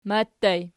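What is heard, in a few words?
"matii" ergative plural, Archi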